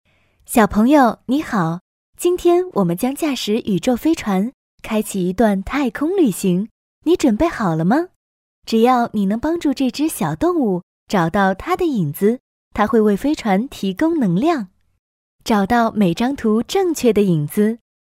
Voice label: locutora china chinese mandarin voiceover

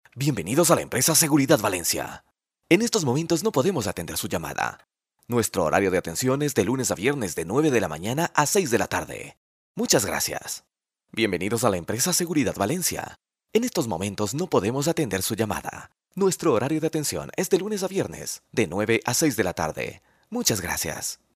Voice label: locutor ecuatoriano ecuadorian voiceover; locutor de Ecuador

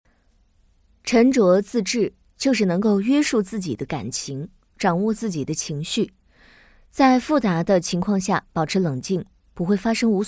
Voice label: chinese female voiceover; locutora china

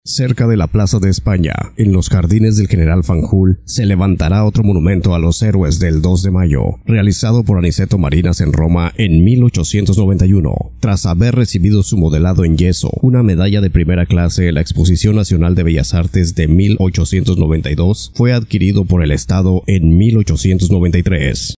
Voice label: mexican voiceover locutor mexicano

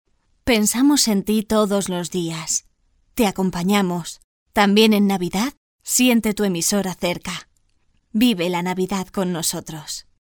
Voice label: navidad indicativo spanish female voiceover

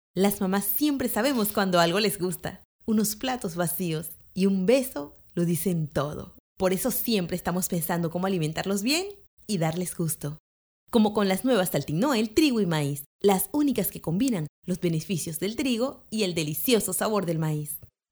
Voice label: panamanian voiceover locutora panama; locutora de Panamá

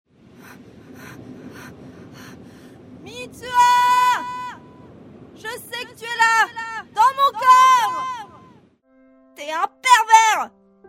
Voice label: locutora francesa french voiceover; locutora de doblaje

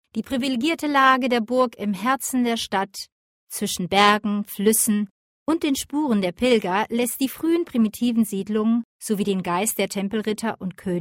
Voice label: locutora alemana german voiceover

